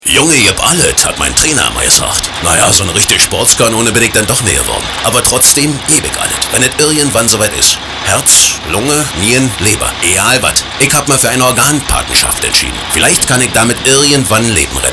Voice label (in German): Gesundheitswesen Pharma Radiowerbung